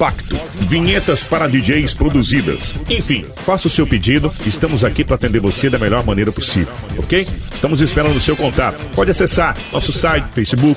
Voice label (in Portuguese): Locutor